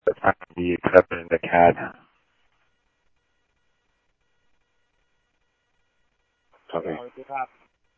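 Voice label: Mesa Police Department, scanner audio trunk; Mesa Simulcast; MESA PD 2 Centrl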